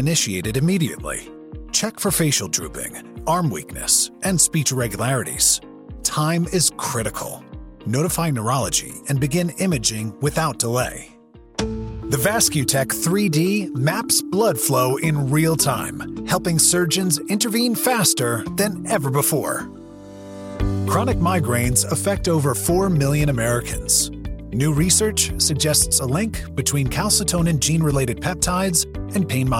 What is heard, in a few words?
Medical Narration